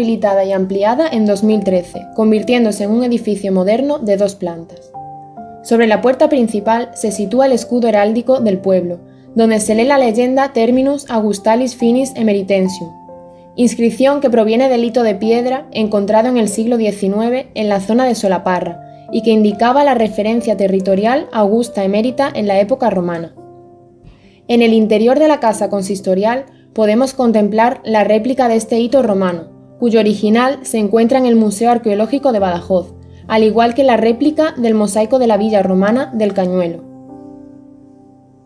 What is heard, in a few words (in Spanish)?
AYUNTAMIENTO ESPANOL CON FONDO